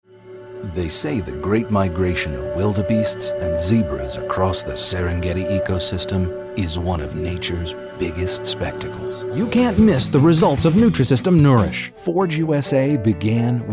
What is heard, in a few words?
Commercial